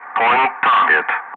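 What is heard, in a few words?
"target", English; Ka-50; Wingman; DCS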